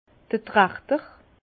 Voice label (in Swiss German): "trachter", Bas Rhin; vin; entonnoir